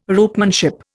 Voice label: "ropemanship", Indian

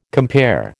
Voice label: "compare", American

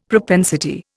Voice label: "propensity", Indian; Dictionary

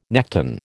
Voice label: "nekton", American

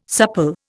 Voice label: "supple", Indian

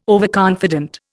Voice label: "overconfident", Indian

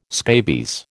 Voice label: "scabies", American

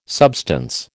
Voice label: "substance", American

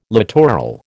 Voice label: "littoral", American

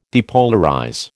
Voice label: "depolarize", American

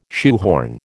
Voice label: "shoehorn", American

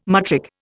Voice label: "matric", Indian

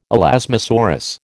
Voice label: "elasmosaurus", American

